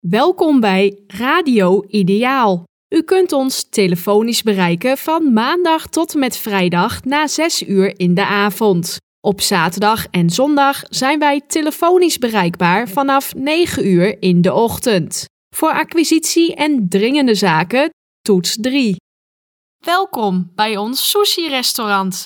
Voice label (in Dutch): Voice mail en Gedicht